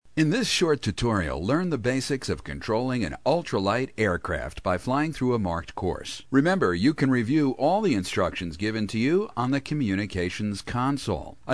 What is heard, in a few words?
Instructional, Clear & Concise, commercials